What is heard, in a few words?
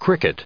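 "cricket", English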